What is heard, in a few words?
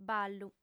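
"ballu", Sardinian, female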